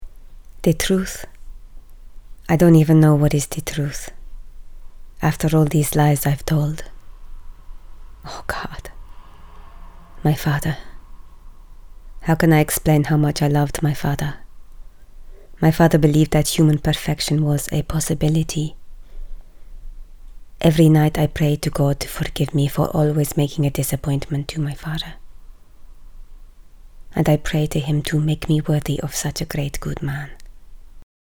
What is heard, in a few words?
Polish Accent